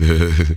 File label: VOX FX; E-Lab; X Static Goldmine 2; akai